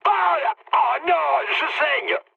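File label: French damaged pilot mood med; War Thunder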